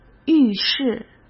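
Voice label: "浴室" Mandarin Chinese; chinese flashcards; hsk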